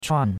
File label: "chuan4", chinois; Cours-de-Chinois